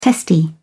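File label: "testy", British English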